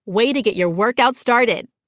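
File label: American English, workout; iOS Sounds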